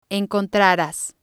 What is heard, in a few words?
"encontraras", Spanish